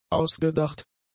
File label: "ausgedacht", German; adjectives